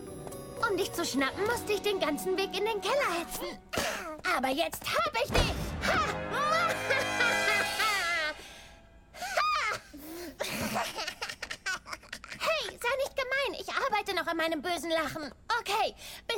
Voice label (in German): Zeichentrick